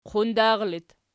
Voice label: "qundar", Archi

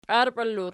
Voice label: "piarpela", Archi

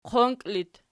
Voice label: "qonki", Archi

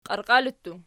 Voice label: "qiarqiali", Archi

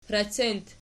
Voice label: "pracent", Archi

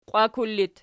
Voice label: "qiwakul", Archi

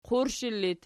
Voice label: "qurshi", Archi

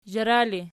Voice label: "zhara", Archi

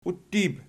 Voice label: "uttib", Archi

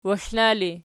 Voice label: waxlna erg, archi

